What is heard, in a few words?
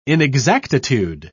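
"inexactitude", British English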